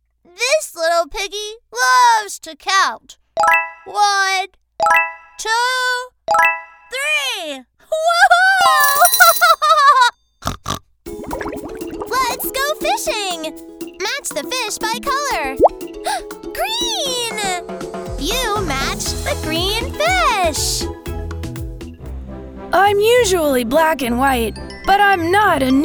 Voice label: Kids eLearning, female voices

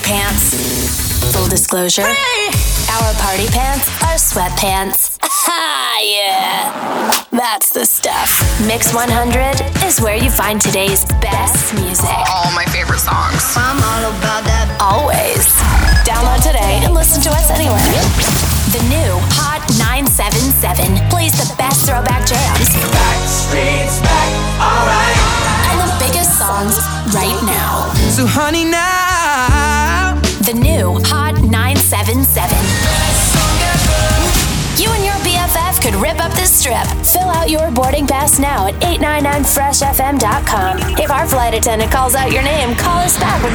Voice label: Radio Imaging, female voices

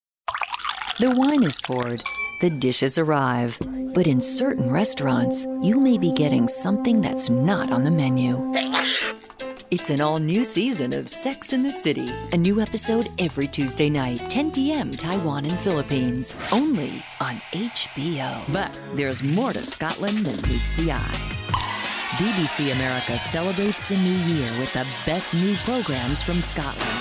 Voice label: Promo, female voices

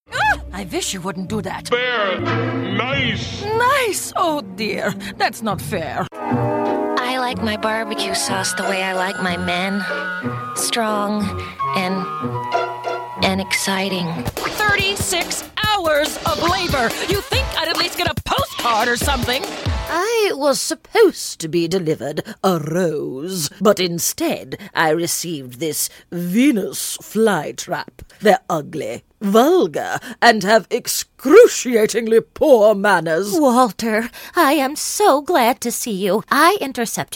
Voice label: Characters Animation, female voices

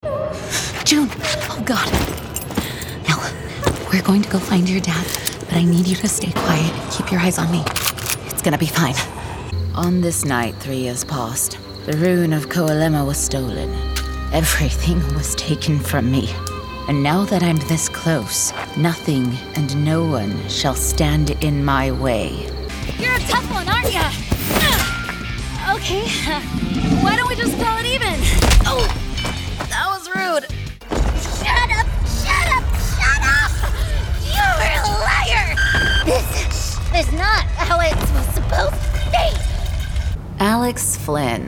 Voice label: Interactive, female voices

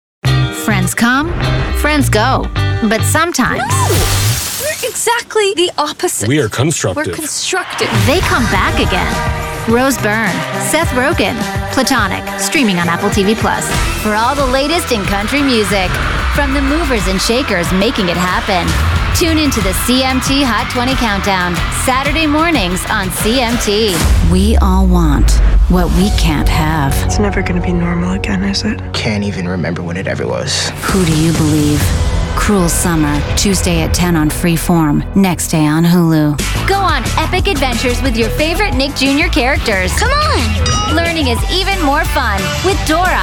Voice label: Promos, female voices

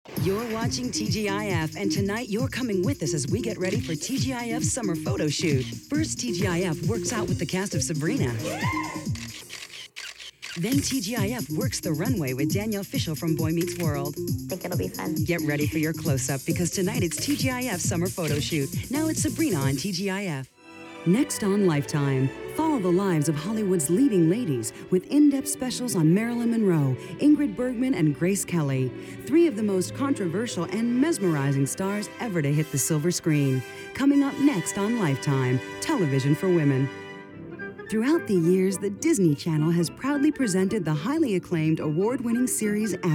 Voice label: Promo, femalevoices

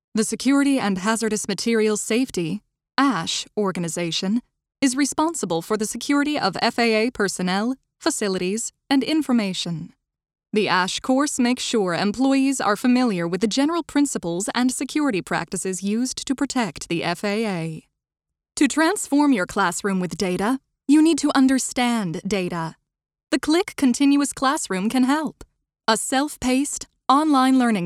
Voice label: ELearning, femalevoices

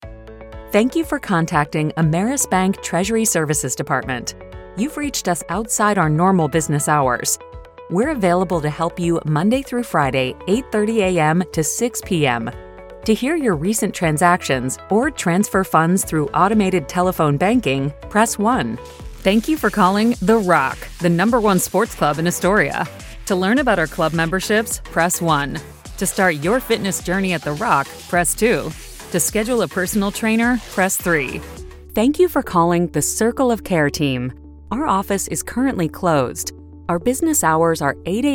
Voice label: Telephony, female voices

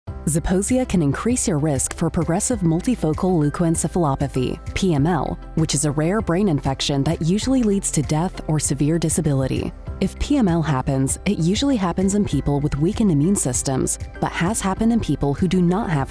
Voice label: Medical Narration, female voices

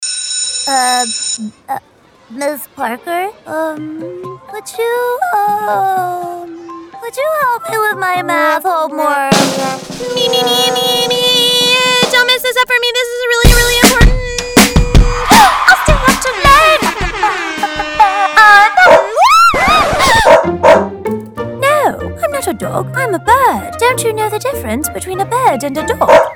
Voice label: Animation, female voices

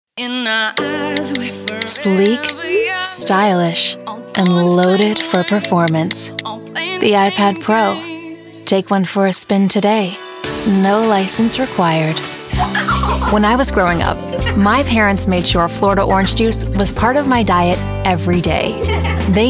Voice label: Commercial, female voices

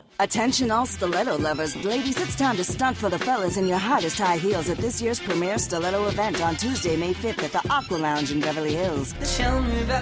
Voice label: commercial, female voices

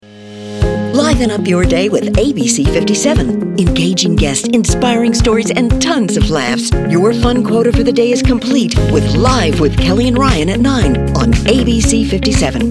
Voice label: TV Affiliate, female voices

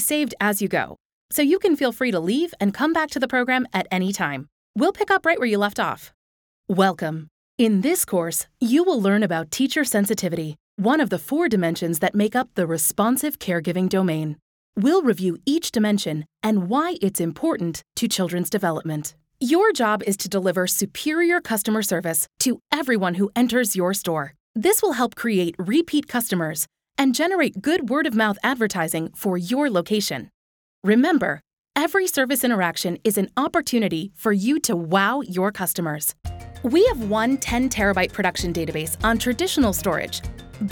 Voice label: ELearning, femalevoices

